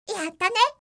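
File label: "yattane", Japanese, yorokobu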